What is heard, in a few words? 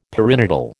"perinatal", American